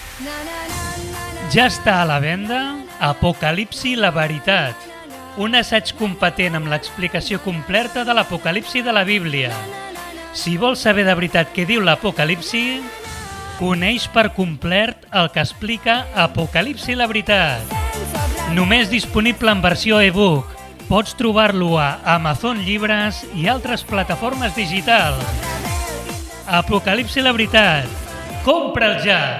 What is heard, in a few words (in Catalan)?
Promocional; FALCA; Apocalipsi La Veritat